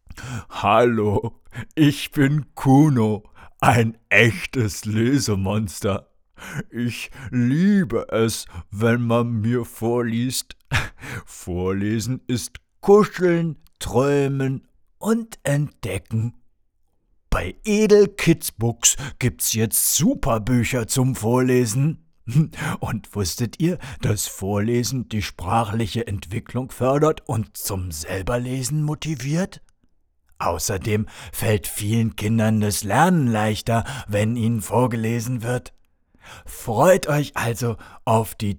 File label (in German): Voicesample Werbung Bass; Edelkidsbooks